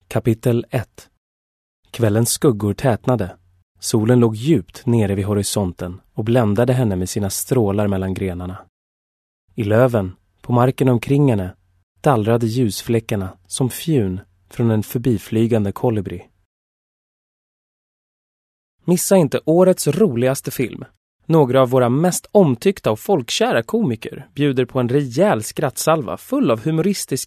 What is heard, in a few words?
swedish male